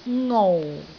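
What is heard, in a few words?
"ngou4", Cantonese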